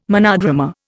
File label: "monodrama", Indian